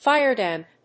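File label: "firedamp", English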